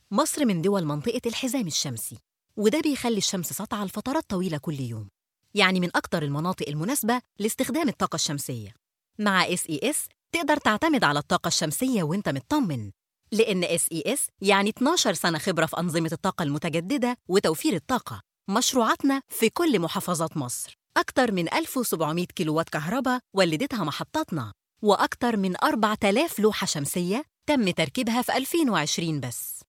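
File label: Arabic Egyptian, female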